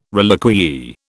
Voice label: "reliquiae", British

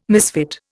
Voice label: "misfit", Indian

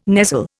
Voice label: "nestle", Indian

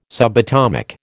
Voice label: "subatomic", American

